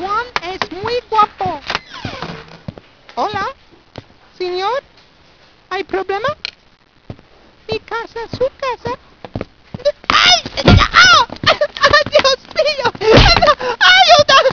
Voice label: spanish